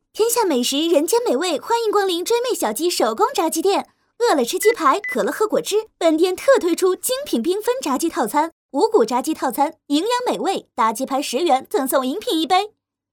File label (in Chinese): 门店广告促销